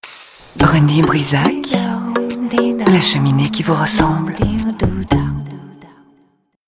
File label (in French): radio jingle cheminees off et chant; cheminees rene brisach